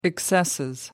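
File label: "excesses", British English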